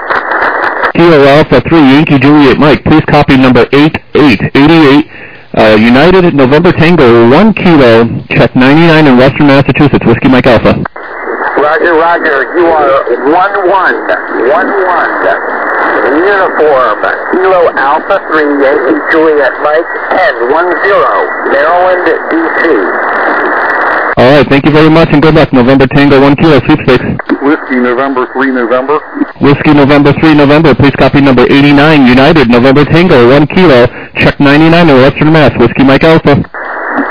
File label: SSB LSB 7MHz